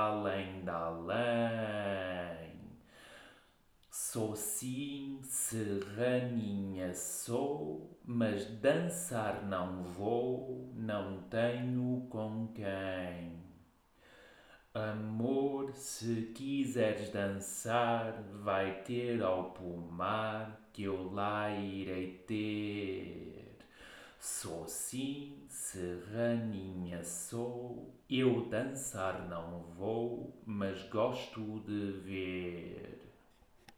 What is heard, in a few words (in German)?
O serrana in rhytmus gesprocher text